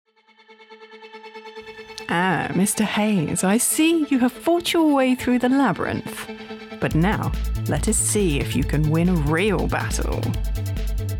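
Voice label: Computer Game, Voice Over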